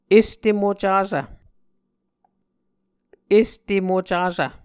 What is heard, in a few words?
"este mocasv", Muscogee